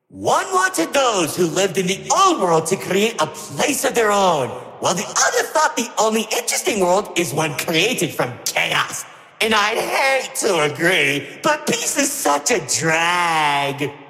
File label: narrator